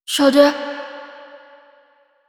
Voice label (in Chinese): 呼唤; c02 小蝉